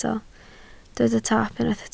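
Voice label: "taap" Welsh, spoken word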